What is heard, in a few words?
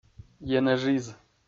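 "енэжиз", Komi-Zyrian, male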